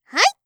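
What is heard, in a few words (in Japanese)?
"はい！", 日本語; 汎用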